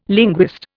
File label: "linguist", Indian